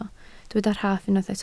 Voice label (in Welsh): "rhaff" Welsh